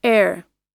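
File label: "air", US English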